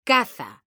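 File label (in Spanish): "CAZA" Spanish, female; mekanta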